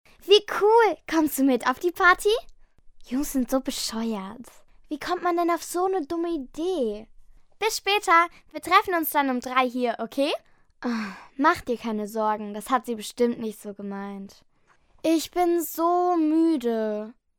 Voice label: german child voiceover locutora infantil alemana, Niña alemana

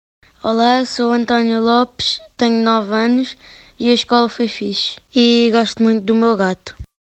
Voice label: locutor nino portugue portuguese child voice

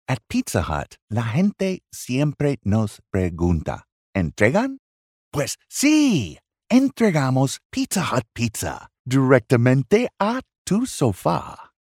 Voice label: locutor norteamericano imitacion; locutor norteamericano hablando español para anuncio

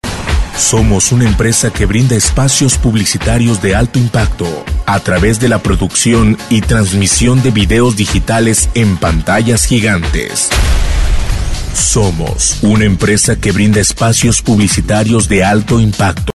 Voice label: locutor mexicano

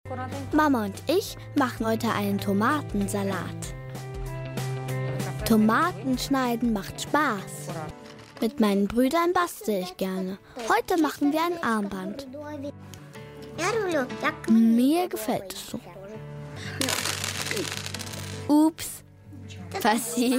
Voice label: german child voiceover locutora infantil alemana

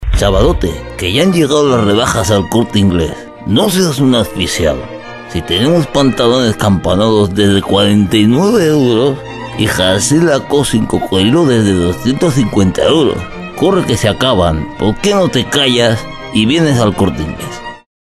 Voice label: locutor imitador rey

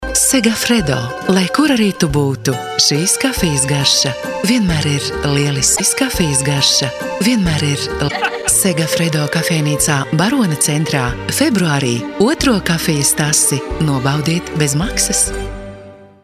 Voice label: locutora rusa

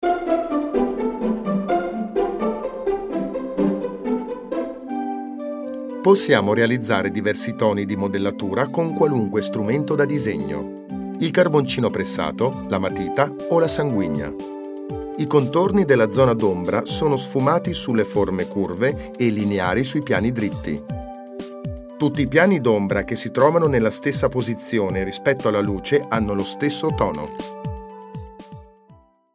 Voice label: locutor italiano

